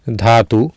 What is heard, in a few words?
"dhaatu", Sanskrit